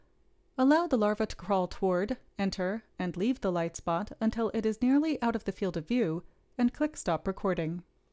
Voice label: Gong Voiceover